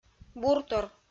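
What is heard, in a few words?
"буртор", Komi-Zyrian, female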